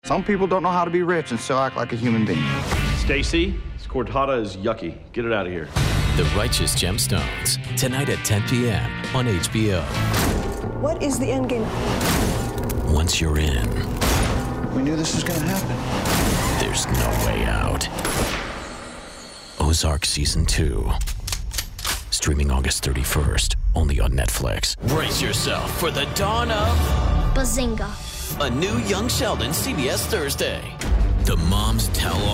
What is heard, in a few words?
Promo